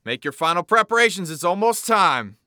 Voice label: Announcer Match Start Prepare